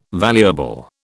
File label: "valuable", British